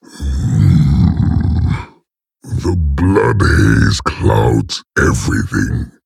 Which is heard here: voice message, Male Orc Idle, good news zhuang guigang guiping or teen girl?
Male Orc Idle